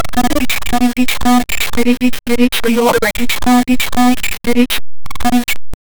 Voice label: a glitched rapper saying

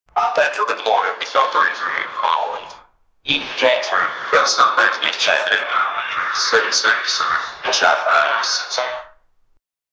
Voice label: fx an announcement

